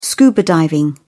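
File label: "scuba diving", English, British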